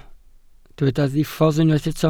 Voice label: "diffodd", Welsh

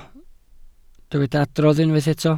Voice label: "adrodd", Welsh

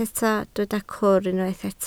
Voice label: "cwr", Welsh

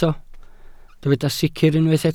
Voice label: "sicr", Welsh

